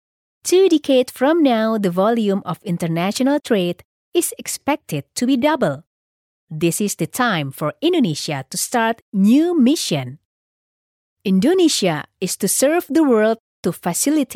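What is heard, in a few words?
Indonesian, female, accented English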